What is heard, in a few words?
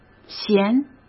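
"弦", Mandarin Chinese; chinese-flashcards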